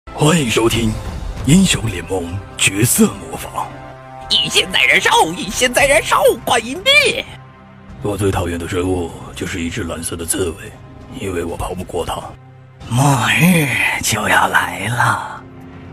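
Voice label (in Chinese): 游戏模仿饰多个英雄; 游戏模仿英雄联盟饰多个英雄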